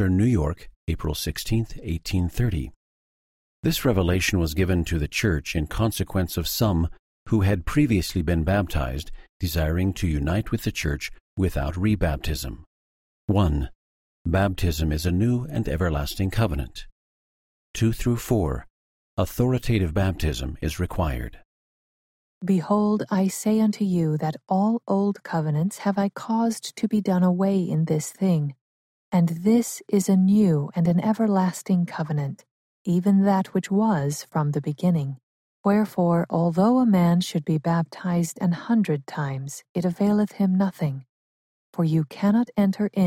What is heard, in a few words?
section female voice eng